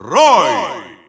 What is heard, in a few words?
Russian Announcer; Roy; SSBU